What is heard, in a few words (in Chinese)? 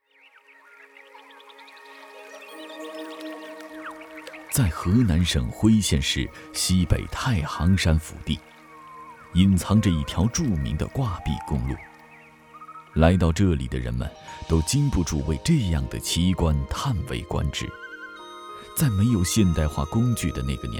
男国 纪录片 人文历史; 红色记忆